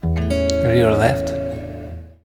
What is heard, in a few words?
audio channel rear left